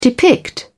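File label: "depict", British English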